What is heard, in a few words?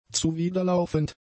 "zuwiderlaufend", German; adjectives